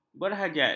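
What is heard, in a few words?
"berhajat", Malay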